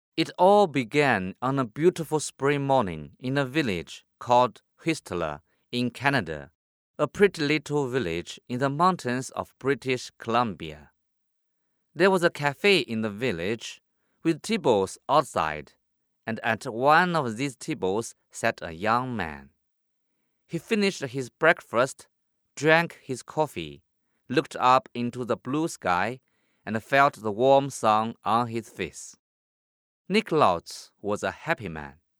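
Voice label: Mandarin Chinese, China, male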